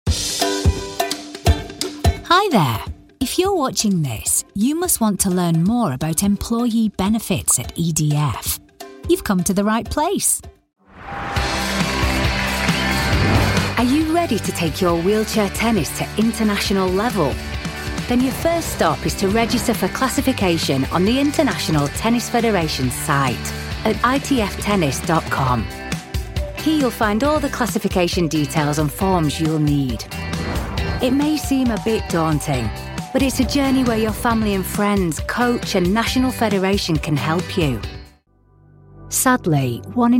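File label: explainers, Northern Authentic Lancashire Voice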